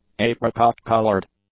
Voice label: "apricot-coloured", English; adjectives

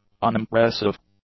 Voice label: "unimpressive", English; adjectives

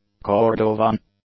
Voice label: "cordovan", English; adjectives

